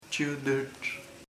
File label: sweaty sudoroso, chamacoco